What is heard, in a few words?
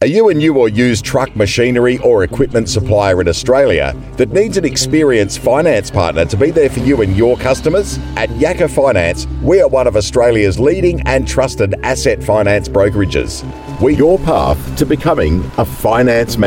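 AU English Explainer, Australian English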